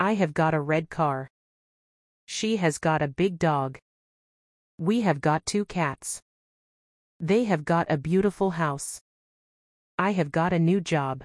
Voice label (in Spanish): ejemplos have got has got ingles